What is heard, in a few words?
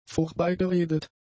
"vorbeigeredet", German; adjectives